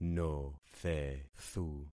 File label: "nothethu", concat syllables; Affixing experiment